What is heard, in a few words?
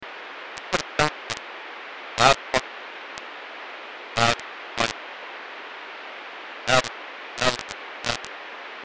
clipped voice